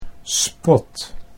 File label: "spot", Dutch